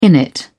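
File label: "innit", British English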